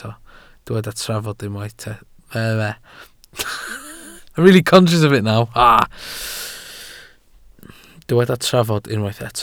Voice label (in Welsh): "trafod" Welsh